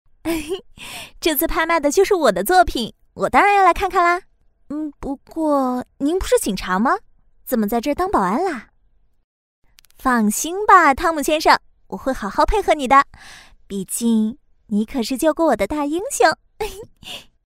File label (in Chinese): 角色 年轻 女 俏皮 活泼 可爱; 广播剧